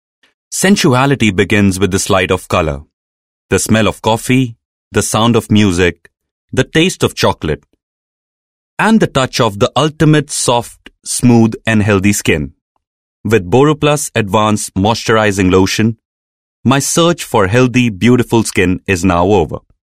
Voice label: English, male voice